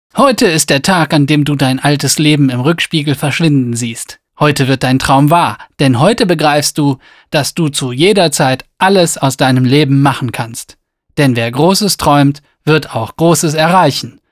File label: Swiss sample